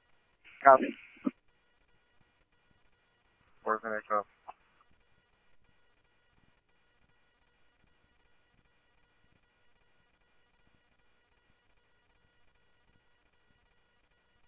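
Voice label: police department, scanner audio trunk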